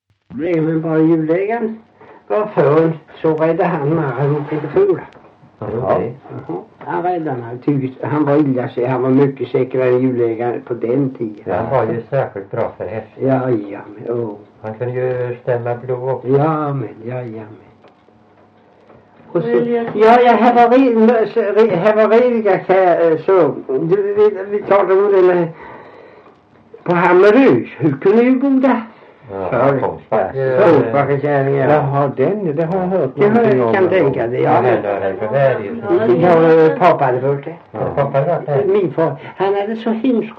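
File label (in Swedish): Värö Halland, dialekter svenska sagor; Folkminnen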